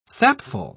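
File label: "sapful", British English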